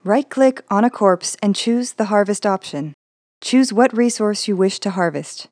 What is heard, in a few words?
tutorial harvest